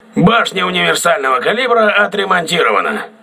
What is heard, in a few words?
voice message auxiliary caliber turret repaired, crew ships russian